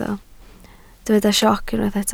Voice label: "sioc", Welsh

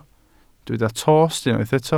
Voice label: "tost", Welsh

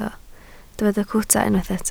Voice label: "cwta", Welsh

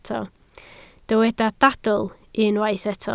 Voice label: "dadl", Welsh

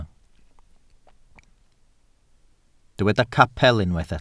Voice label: "capel", Welsh

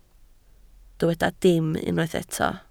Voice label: "dim", Welsh

